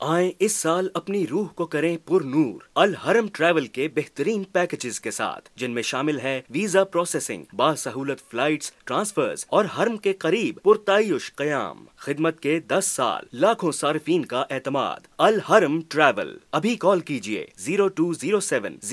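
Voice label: Urdu, male, commercial; voice-over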